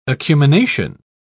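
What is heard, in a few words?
"acumination", English, British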